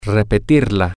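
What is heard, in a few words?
to Repeat It feminine, Spanish, male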